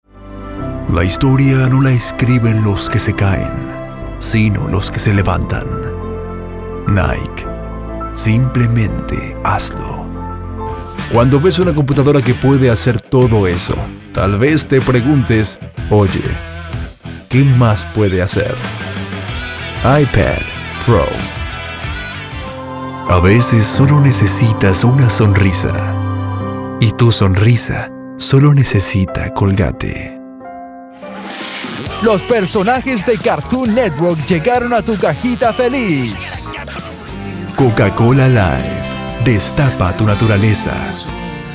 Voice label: Commercial